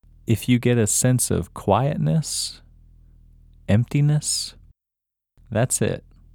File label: Male